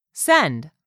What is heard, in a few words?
"send", English; 3000 words